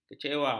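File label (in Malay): "kecewa", Malay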